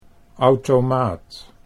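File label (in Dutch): "automaat", Dutch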